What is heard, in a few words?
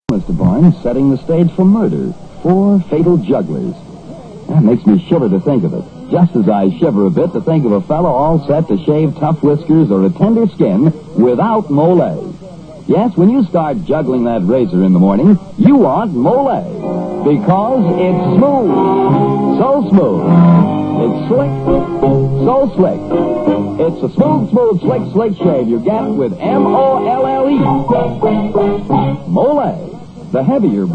Commercial; Molle